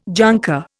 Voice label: "junker", Indian